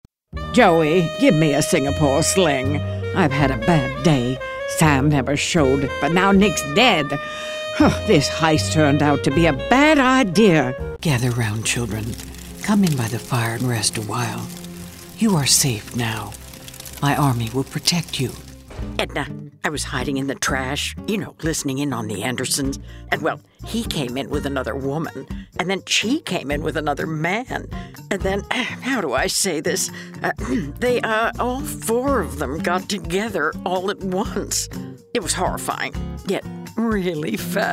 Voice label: Animation